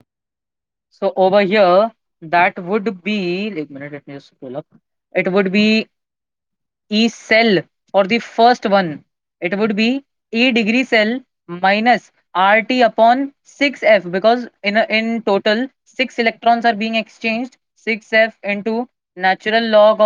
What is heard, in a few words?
voice message